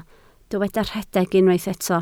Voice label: "rhedeg", Welsh